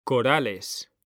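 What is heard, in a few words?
"CORALES", Spanish; mekanta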